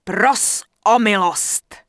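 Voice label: Human Female Standard NPC Pissed, Czech; wowpreklad; czwow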